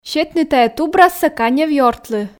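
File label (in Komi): "сетны тайӧ тубрассӧ канев ёртлы", Komi, female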